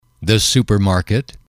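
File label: "supermarket", English, male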